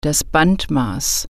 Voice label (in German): "tape measure", German